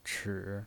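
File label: "chi", Mandarin Chinese pinyin voice, third tone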